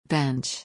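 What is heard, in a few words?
"bench", English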